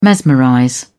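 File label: "mesmerize", British English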